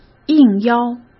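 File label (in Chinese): "应邀", Mandarin; chinese-flashcards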